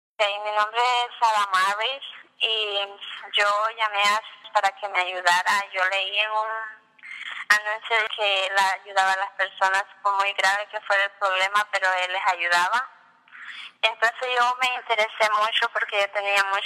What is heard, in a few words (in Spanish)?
testimonio; amarre de amor